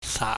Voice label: "saak", pingyam